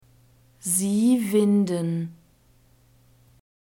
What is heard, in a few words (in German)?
"sie winden", German; verbs present tense irregular